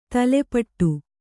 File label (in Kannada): "ತಲೆಪಟ್ಟು", kannada